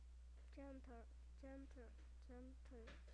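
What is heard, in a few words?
"gentle", spoken word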